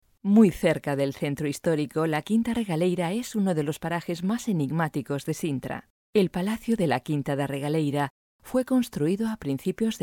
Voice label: es books; Sintra